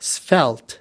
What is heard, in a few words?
"svelte", us